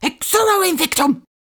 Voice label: exuro invictum rushed, incantations older female fire spells